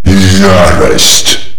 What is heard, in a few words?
rampage, male